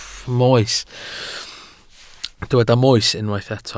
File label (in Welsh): "moes" Welsh